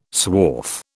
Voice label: "swarf", British

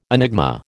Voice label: "enigma", American

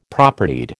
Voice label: "Propertied", American

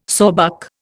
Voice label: "sawbuck", Indian

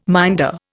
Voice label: "minder", Indian

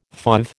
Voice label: "fauve", American

